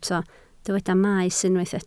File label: "maes", Welsh